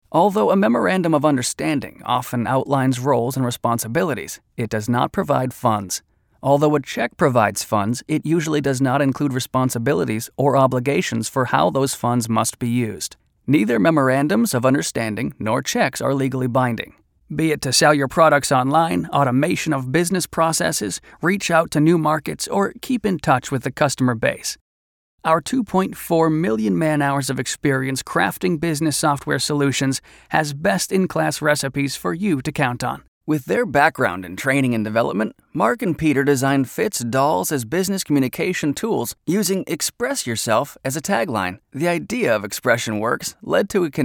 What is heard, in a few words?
E-Learn Friendly